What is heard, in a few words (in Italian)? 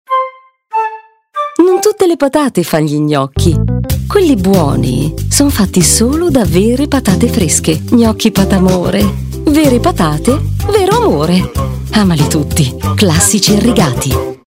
simpatica sexy; patamore